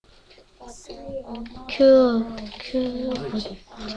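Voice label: "cube", spoken word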